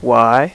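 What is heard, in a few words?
"Why", male; VOICE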